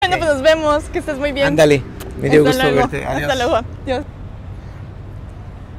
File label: greetings dialogue; novice